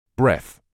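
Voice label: "breath", British English; breθ; breth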